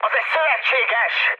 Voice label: player friendly fire, mood high, speech hun